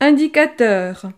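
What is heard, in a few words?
"indicateur", French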